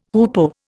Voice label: "hoopoe", Indian